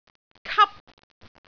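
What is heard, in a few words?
"kap1", Cantonese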